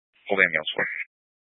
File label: Fire Department Dispatch, scanner; Grand Junction